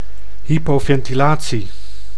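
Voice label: "hypoventilatie", Dutch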